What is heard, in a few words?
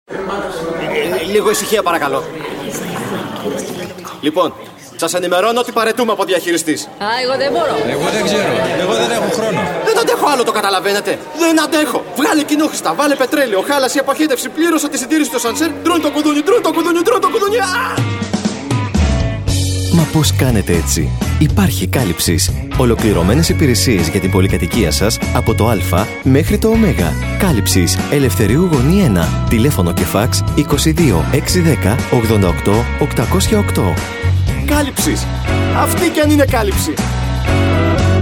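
Greek, character; GoLocalise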